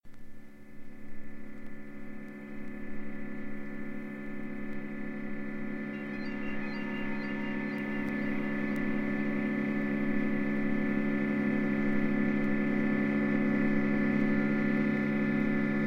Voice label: Narration In Japanese